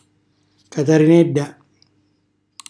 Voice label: "catarinella", Calabrese; coccinella